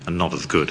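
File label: "anD noT as good", Standard Southern British; ənːɒɾəz'gʊt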